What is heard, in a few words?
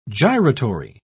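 "gyratory", British English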